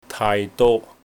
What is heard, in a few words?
"taai3do1", Cantonese